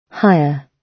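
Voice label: "hire", British English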